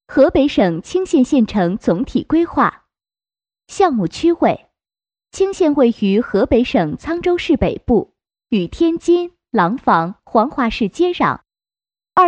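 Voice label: Chinese, female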